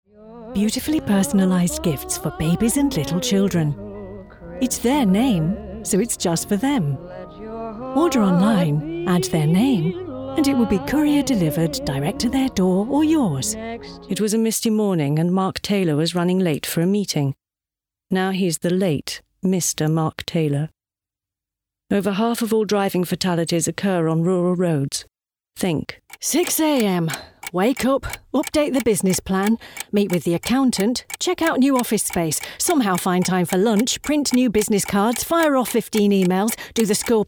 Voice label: commercials